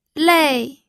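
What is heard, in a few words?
"lei", Mandarin Chinese, fourth tone; pinyin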